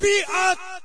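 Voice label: German piat; video games; player; source engine; dod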